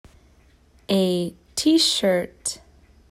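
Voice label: "A t-shirt", English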